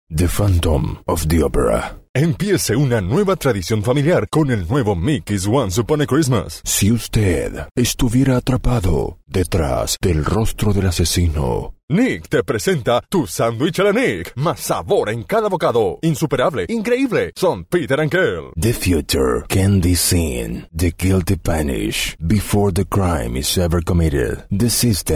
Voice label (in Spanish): Off Latino Ingles